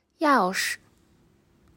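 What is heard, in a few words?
"钥匙", Chinese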